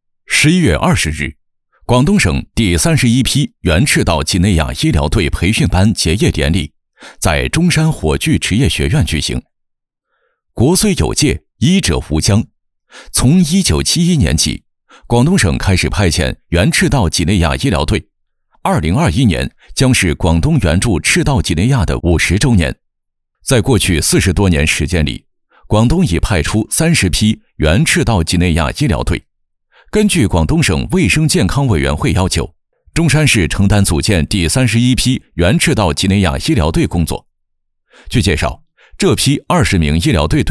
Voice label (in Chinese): 男 新闻